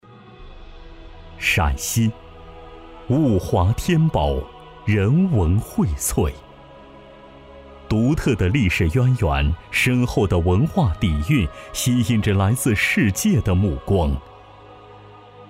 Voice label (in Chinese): 男 专题 解说